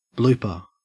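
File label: "blooper", English, Australian accent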